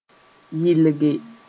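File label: "yɩlge", Mossi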